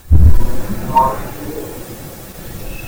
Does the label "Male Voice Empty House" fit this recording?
Yes